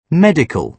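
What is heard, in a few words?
"medical", British English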